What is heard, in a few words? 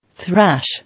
"Thrash", English